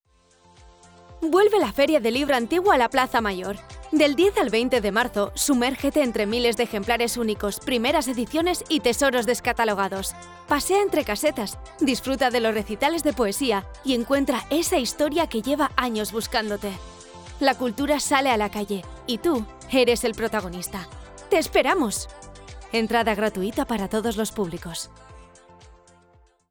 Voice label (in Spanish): publi radio Castellano